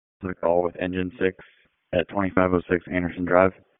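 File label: Fire Department HQ Dispatch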